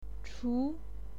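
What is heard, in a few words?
"chu", Mandarin Chinese, second tone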